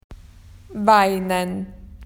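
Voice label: "to cry", Australian English; PictureDictionary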